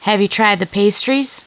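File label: female question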